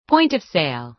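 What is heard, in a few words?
"point of sale", American English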